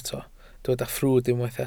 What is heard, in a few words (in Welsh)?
"ffrwd" Welsh